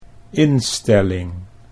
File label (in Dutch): "instelling", Dutch